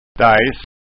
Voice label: "dajs", skanska

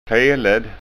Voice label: "feled", skanskaord

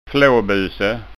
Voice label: "flabuse", skanska ord

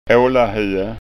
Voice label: "alahue", skanska

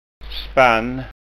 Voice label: "spann", Skånska

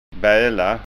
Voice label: "bala", skånska

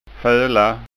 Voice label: "fola", skanskaord

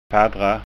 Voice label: "paddra", skanska ord

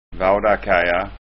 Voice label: "vaudakaja", skanska

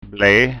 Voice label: "ble", skanska ord

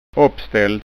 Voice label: "oppstallt", skanska ord

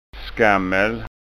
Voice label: "skammel", skanska ord

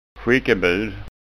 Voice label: "skickebud", Skånska

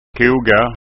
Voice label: "koga", skånska ord

